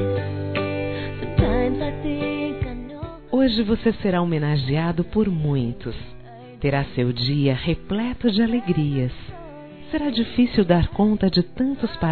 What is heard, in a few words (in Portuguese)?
NEUTRO É PIC, É HORA F; Aniversários; Aniversários diversos; fem